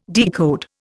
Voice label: "decode", Indian